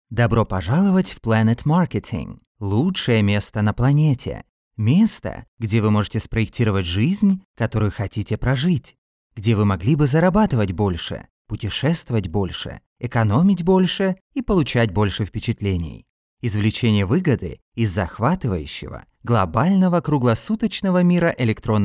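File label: Russian, male, Corporate promo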